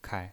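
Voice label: "kai", neutral tone, pinyin voice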